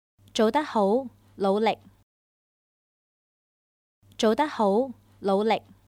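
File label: Keep up the good work, Chinese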